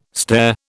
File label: "stare", British